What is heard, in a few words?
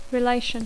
"relation", English